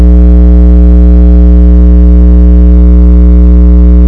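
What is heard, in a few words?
"ring", spoken word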